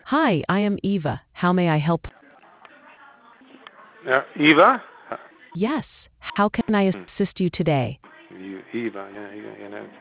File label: conversation